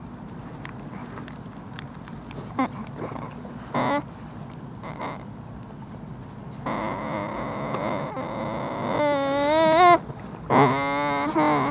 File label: teenager